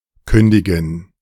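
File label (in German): "kündigen", German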